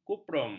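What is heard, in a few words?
"kuprum", Malay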